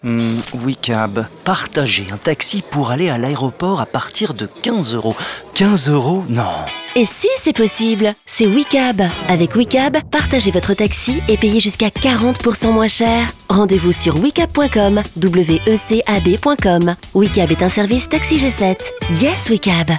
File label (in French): Souriant; TaxiG7 Wecab